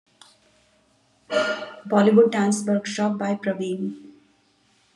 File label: voice message